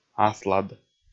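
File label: "аслад", Komi, male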